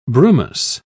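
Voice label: "brumous", English, British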